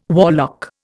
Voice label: "warlock", Indian

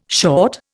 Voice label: "short", Indian

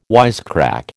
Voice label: "wisecrack", American

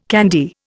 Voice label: "candy", Indian

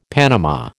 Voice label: "panama", American

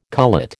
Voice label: "cullet", American

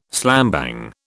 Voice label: "slambang", British

